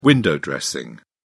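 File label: "window dressing", British English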